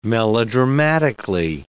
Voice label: "melodramatically", English